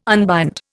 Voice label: "unbind", Indian